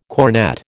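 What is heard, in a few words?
"cornett", American English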